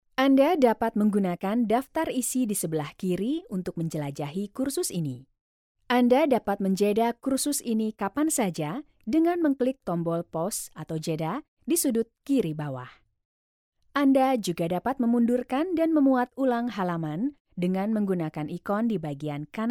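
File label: Indonesian, E-Learning, voice demos; GoLocalise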